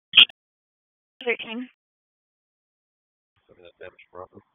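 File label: Raleigh Police Southeast District Dispatch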